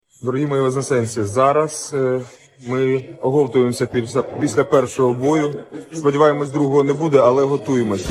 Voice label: vocals